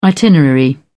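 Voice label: "itinerary", British English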